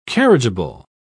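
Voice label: "carriageable", English, British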